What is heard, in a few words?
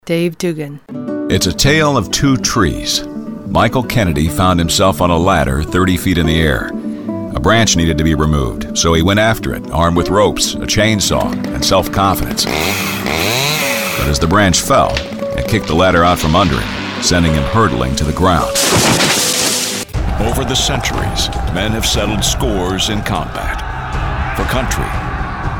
M Narration, voiceover narration men